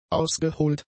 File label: "ausgeholt", German; adjectives